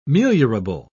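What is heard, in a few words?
"meliorable", British English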